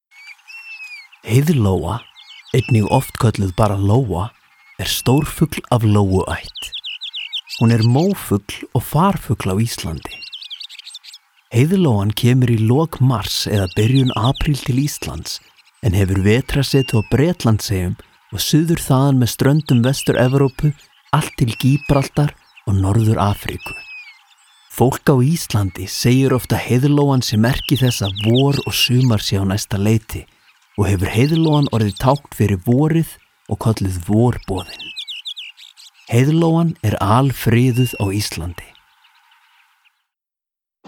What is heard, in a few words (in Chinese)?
冰岛语男